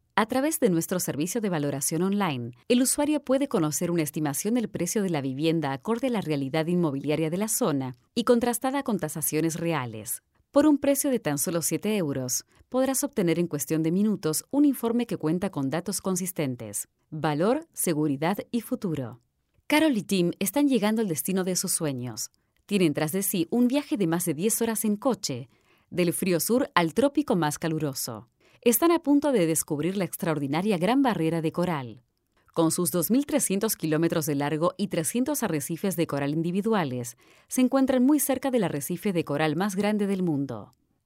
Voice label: spanish latin american training e-learning, spanish argentinian voice over talent